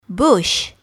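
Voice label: "bush", English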